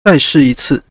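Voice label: try again, Chinese; gcompris-data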